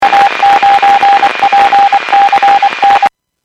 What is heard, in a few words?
Skywarn 146.67; Stevens Point